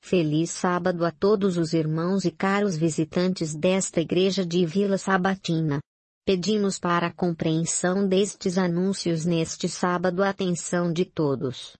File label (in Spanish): anuncios